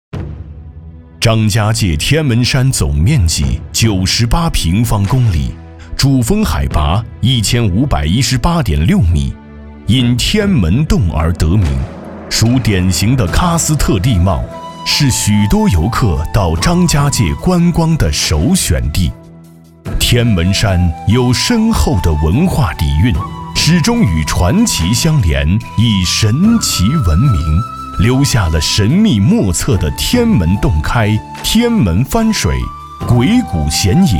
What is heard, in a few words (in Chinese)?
男 宣传片 大气厚重; 张家界招商